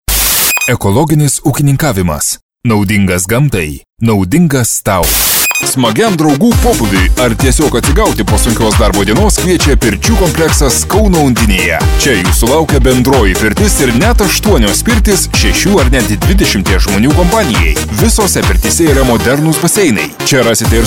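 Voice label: lithuanian male commercial; voice demos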